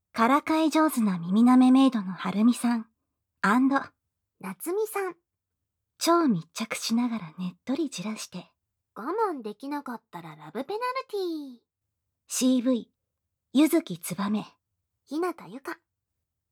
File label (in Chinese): 标题朗诵